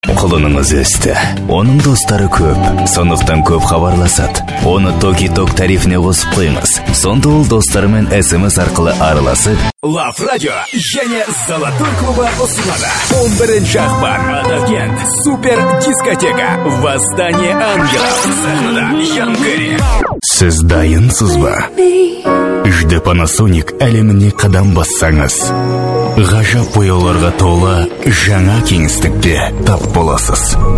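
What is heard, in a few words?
kazakh male commercial; voice demos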